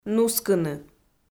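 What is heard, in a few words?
"нускыны", Komi, female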